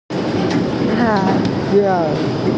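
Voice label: "la4jiao1", Mandarin Chinese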